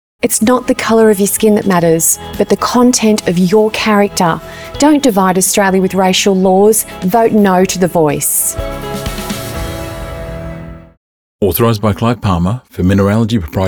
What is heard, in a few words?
radio